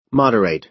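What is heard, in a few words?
"moderate", American English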